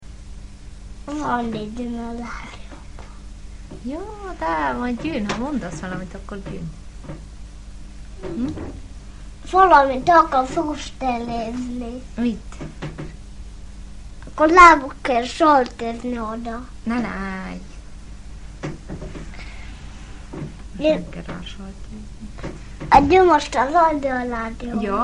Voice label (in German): ungarisch; sedrun; stuermi